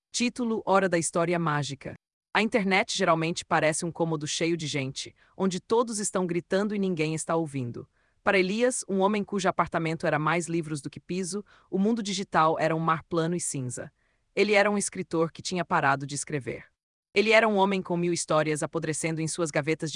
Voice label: portuguese; micro-tales